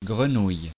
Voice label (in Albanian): "grenouille", frengjisht